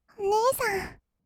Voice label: お姉さん, kawaii voice; ASMR; 二人称

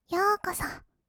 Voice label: "ようこそ", kawaii voice; 1200 kawaii voice!!; 色々なワンショット

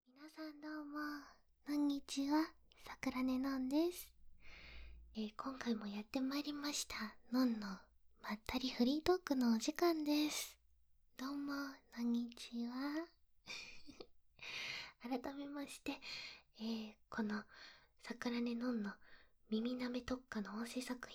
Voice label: まったりフリートーク; ASMR

